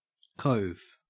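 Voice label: "cove", Australian English; pronunciations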